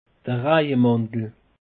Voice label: "rajemantel", alsace; peche

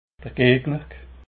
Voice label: "gegner", alsace

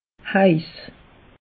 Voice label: "heiss", alsace; meteo

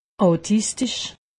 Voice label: "autistich", alsace; medecine

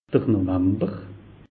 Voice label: "novamber", alsace; meteo

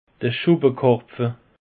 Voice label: "schuppekarpfe", alsace; peche

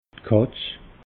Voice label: "katz", alsace

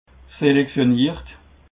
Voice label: "selectionnert", alsace

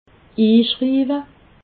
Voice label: "ischriiwe", alsace

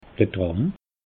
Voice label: "tram", alsace

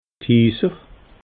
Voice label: "hiiser", alsace

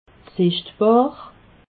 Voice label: "sichtbar", alsace

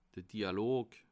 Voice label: "dialog", alsace

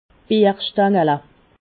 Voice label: "bierstangele", Alsace

